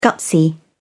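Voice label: "gutsy", British English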